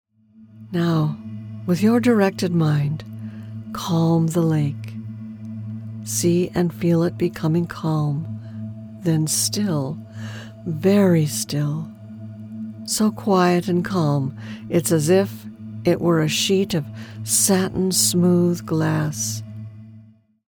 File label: Placid Lake Meditation